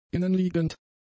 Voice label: "innenliegend", German; adjectives

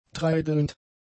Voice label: "treidelnd", German; adjectives

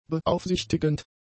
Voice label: "beaufsichtigend", German; adjectives

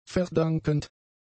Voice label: "verdankend", German; adjectives

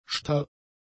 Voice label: "starr", German; adjectives

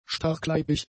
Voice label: "starkleibig", German; adjectives

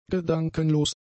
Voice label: "gedankenlos", German; adjectives

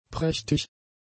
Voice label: "prächtig", German; adjectives

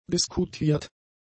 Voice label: "diskutiert", German; adjectives

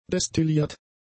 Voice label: "destilliert", german; adjectives

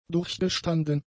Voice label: "durchgestanden", German; adjectives

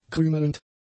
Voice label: "krümelnd", German; adjectives

